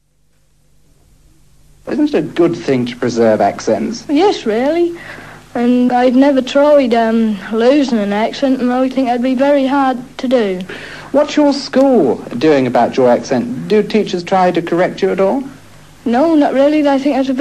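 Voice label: EASTERN ENGLAND Norfolk, Accents of English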